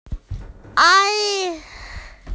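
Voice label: "ai3", Mandarin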